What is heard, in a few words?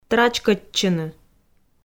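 "трачкӧдчыны", Komi, female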